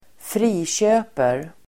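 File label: "köper", Swedish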